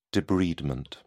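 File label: "debridement", British English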